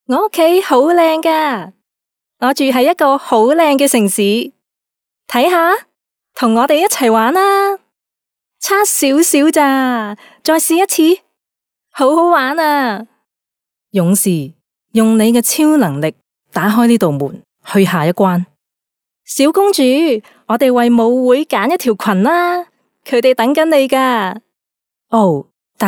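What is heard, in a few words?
Cantonese female children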